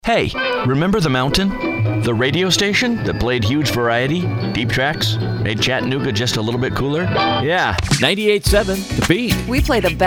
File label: Imaging and affiliate voice